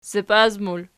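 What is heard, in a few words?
"zapias" plural, Archi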